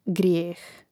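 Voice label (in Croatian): "grijeh", hrvatski; mreznik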